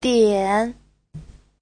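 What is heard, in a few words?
"diǎn", Mandarin Chinese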